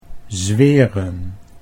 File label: "zweren", Dutch